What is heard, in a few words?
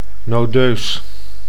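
"nodeus", Dutch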